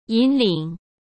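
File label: "引领", Mandarin Chinese; chinese-flashcards; hsk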